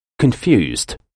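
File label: "confused", English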